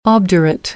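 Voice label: "obdurate", American English; AmericanBritish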